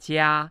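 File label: "jia1", Chinese, male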